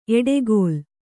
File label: "ಎಡೆಗೋಲ್", kannada